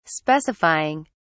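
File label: "specifying", English, British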